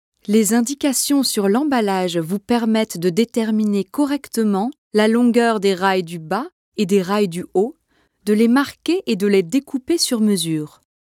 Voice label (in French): VO FRENCH